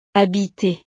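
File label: "habiter", French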